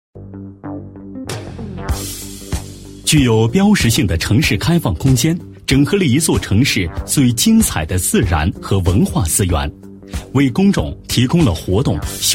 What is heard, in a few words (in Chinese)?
男 现代感, 国语男声配音 大气浑厚; 鸣凤山